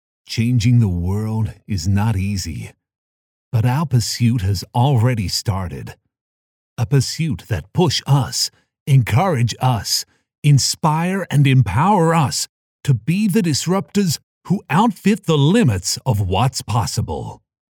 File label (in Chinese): 深沉力度广告; 改变世界